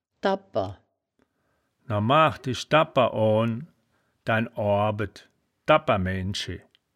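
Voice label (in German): "dabper", wittlicher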